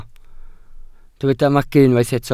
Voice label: "magu", Welsh